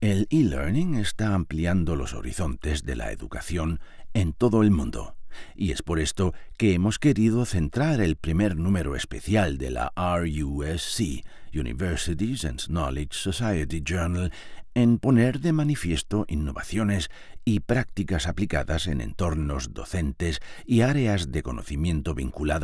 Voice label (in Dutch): Spaans Man